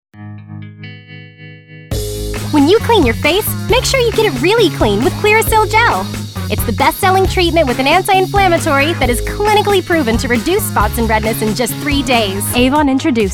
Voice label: Commercial